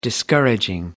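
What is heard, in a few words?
"discouraging", English, British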